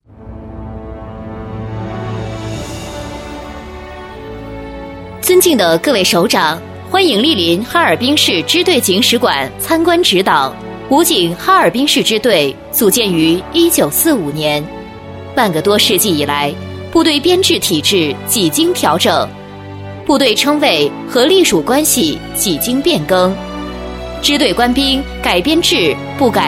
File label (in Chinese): 专题 政府 激情; 武警哈尔滨支队